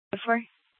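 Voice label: Police Department Dispatch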